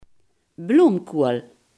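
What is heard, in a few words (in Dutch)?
"bloemkool" Limburgish dialect word; bloemkool, bloomkoeal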